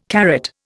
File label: "carrot", Indian